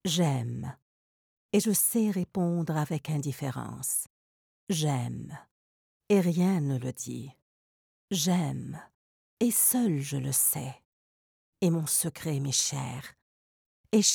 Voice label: French Female